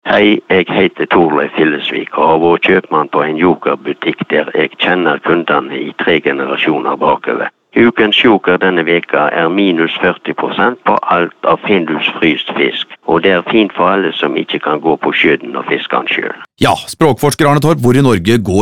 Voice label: radiospots